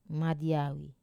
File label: "madiawi", younger siblings, Wamesa